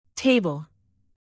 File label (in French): "table", French native; alphabet fr